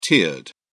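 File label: "tiered", British English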